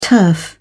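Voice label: "turf", British English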